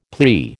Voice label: "plea", American

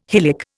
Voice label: "hylic", Indian

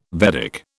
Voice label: "Vedic", British

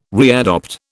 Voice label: "readopt", British

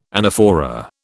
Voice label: "anaphora", British

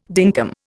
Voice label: "dinkum", Indian

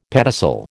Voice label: "pedicel", American

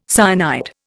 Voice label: "cyanide", Indian

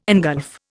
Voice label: "engulf", Indian

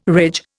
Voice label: "ridge", Indian

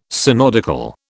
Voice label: "synodical", British

